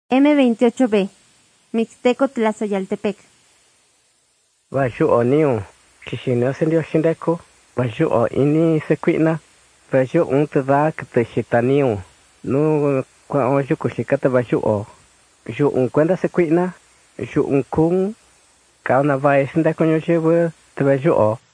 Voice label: mixtec diagnostic spanish mexico